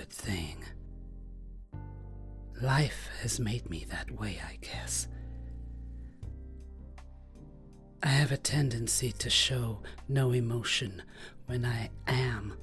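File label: Struggling mother Emotions difficult decisions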